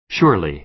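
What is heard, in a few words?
"surely", American English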